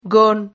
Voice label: "gon", Silabas

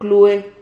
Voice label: "clue", Silabas

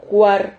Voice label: "juar", Silabas

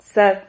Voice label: "xad", Silabas

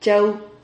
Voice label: "chau", Silabas

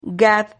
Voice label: "gad", Silabas

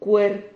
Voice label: "cuer", Silabas

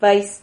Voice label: "vais", Silabas

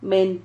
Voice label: "men", Silabas

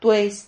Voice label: "tueis", Silabas

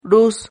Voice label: "ruz", Silabas

